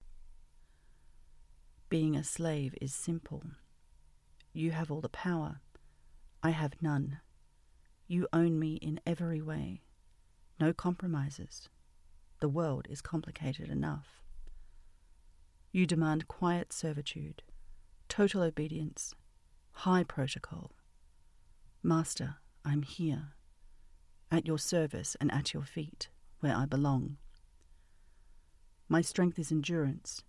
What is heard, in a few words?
australian accent narrative fsub obedient; The Slave; [fiercely loyal]